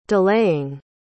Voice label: "delaying", pronounce

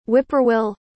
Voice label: "whip-poor-will", pronounce

